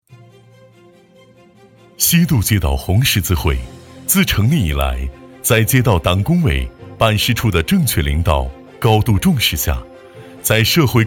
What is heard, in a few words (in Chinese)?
男 宣传片; 西渡街道红十字会